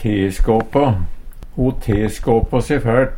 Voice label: teskåpå, Numedalsmål; Adjektiv; Ho teskåpå se fært.

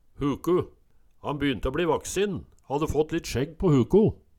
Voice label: "huku", Numedalsmål

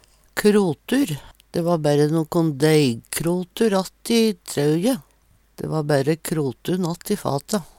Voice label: krotur, Numedalsmål

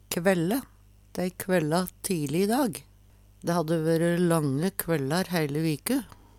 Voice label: kvaelle, Numedalsmål

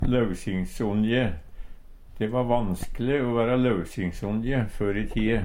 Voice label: lausingsonje, Numedalsmål; De va vanskele o være lausinjsonje før i tie.

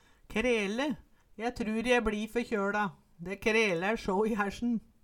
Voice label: krele, Numedalsmål

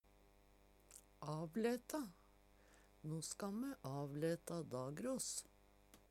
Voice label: "avleta", Numedalsmål

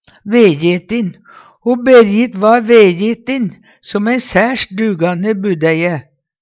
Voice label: vejetin, Numedalsmål; Adjektiv; Ho Berjit va vejetin som ei særs dugande budeie.

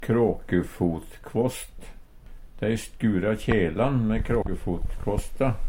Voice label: krakefotkvast, Numedalsmål; Substantiv hankjønn; Planteriket; Hushald, mat, drikke

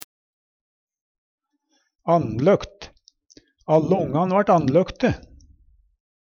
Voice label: "annlokt", Numedalsmål

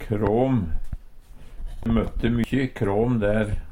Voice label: kram, Numedalsmål; Substantiv inkjekjønn

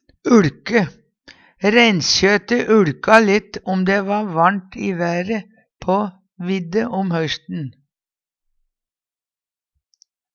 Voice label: "uLke", Numedalsmål

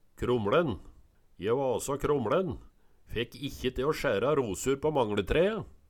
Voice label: kromLen, Numedalsmål

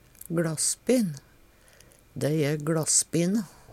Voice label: "gLaspin", Numedalsmål; Adjektiv

